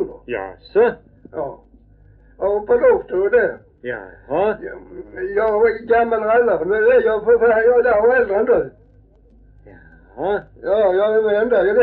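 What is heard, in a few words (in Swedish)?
Farstorp Skåne, dialekter; Folkminnen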